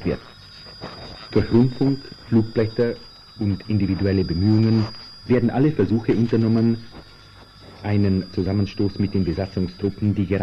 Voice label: radio prag; 1968